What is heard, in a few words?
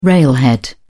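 "railhead", British English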